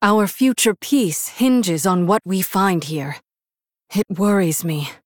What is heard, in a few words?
Female Human